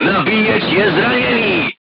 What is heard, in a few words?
voice message charger wounded, crew tanks czech